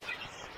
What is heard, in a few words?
"hey", voice